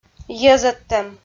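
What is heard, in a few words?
"йӧзӧдтӧм" Komi, female; audio dict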